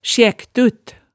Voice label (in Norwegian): "sjæktut", hemsedalsmaalet